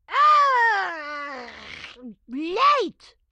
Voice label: grandma chopper missed; Blind Drive